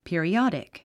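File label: "periodic", English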